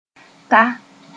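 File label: "t'a", Mooré; that he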